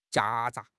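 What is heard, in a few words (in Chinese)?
"夹杂", Chinese; HSK6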